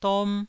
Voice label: "dom1", Taiwanese syllable